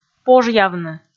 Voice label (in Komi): "пожъявны", Komi